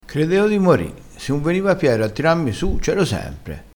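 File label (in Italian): "credeo", dialettale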